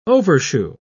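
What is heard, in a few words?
"overshoe", English, British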